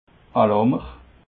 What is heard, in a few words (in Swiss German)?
"laamer", alsace